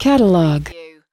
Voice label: US female "catalog"; lynne publishing